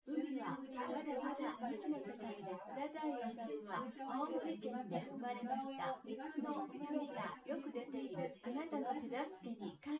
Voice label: female, synthconv, src